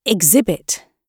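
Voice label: "exhibit", British English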